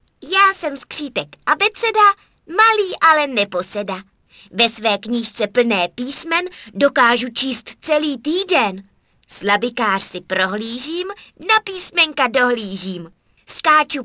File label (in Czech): báseň